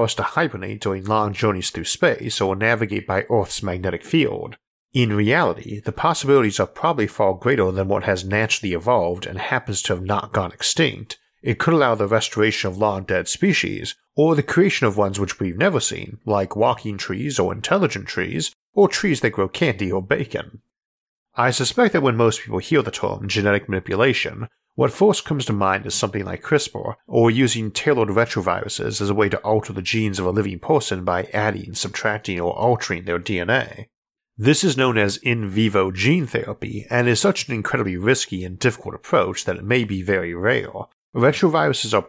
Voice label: biotech human modification and augmentation narration